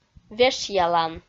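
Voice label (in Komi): "вешъялан", Komi, female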